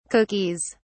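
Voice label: "cookies", British English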